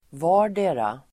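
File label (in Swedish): "vardera", Swedish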